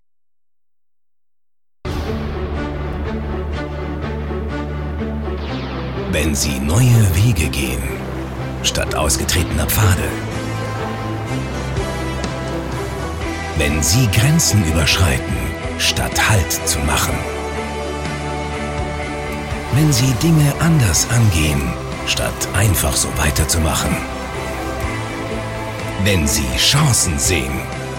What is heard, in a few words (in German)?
Imagefilm; Versicherungskammer